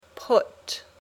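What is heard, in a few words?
"put", English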